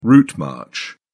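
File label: "route march", British English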